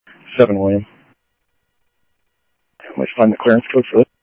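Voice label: Sheriff Lake Patrol, scanner audio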